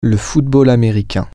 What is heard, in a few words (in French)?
"the football", French